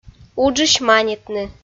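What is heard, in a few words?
"уджысь манитны", Komi, female; kpv audio dict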